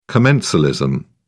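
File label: "commensalism", English, British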